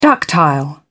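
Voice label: "ductile", British English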